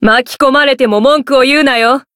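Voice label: variable chant, Japanese; minalca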